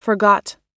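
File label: "forgot", US English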